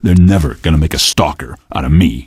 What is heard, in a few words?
question, male; Garry's Mod; hl2